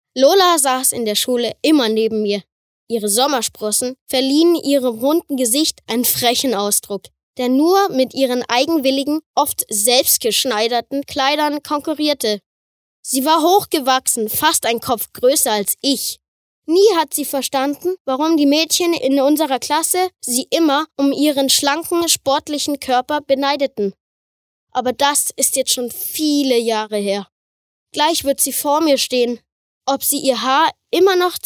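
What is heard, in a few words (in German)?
acting, Kindlich; Schultage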